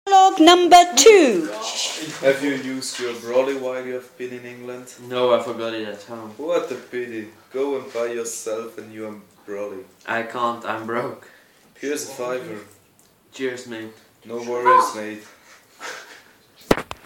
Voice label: yellow room slang dialogue